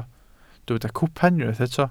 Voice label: "cwpan", Welsh